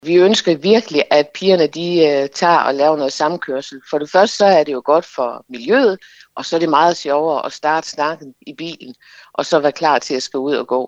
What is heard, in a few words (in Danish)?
Trafik RADIO